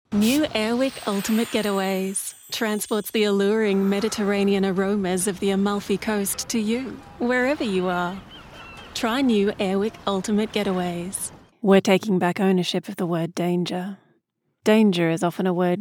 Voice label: Commercial

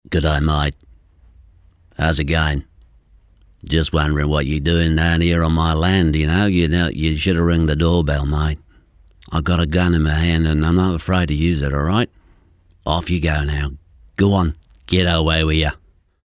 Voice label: Rural Kiwi acent

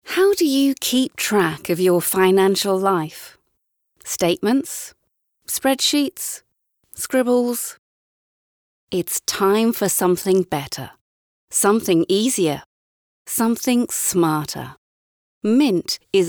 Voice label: Explainer; Mint

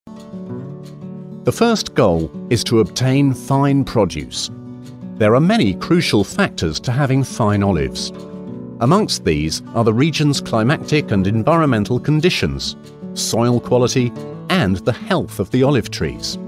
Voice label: Museum Audio Guides, british rp natural; Brighton, UK; english

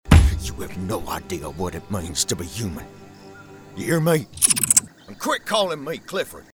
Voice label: Southern US Cowboy; southern us | natural

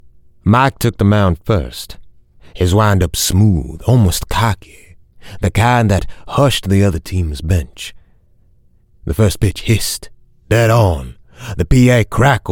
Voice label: Narration, en-AU

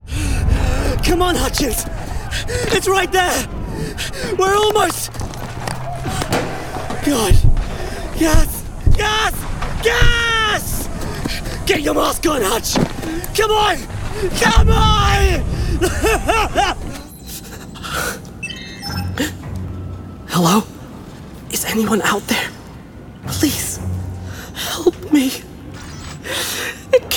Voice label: Interactive, en-US

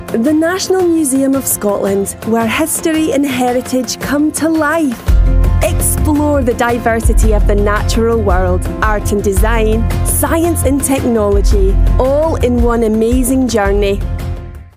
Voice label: Scottish Museum

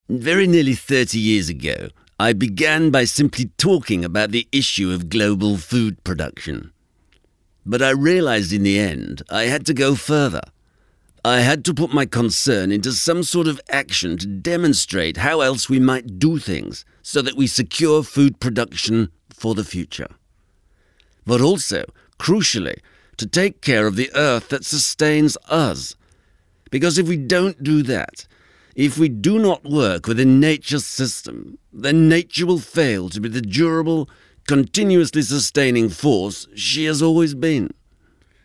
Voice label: King Charles impersonation

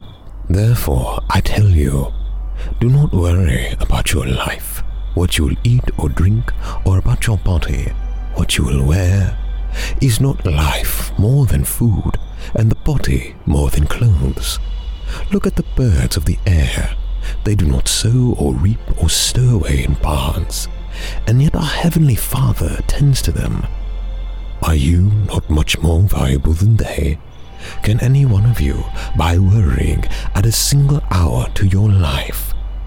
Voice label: Narration; Godzeal

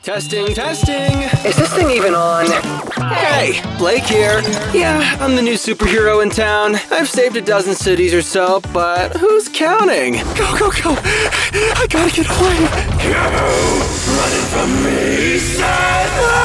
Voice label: Character

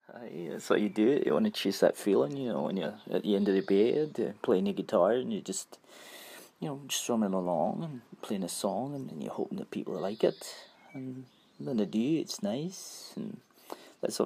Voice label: Scottish Accents

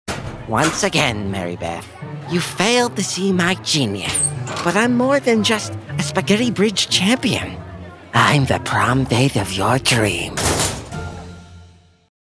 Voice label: Awkward Teen

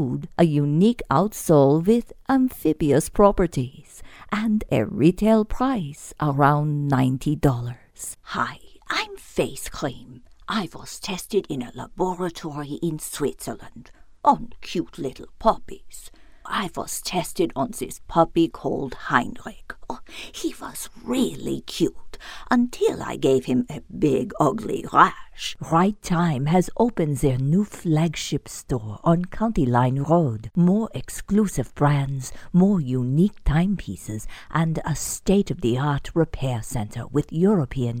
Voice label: German

